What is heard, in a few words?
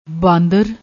"baandar", Punjabi